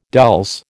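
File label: "dulse", American English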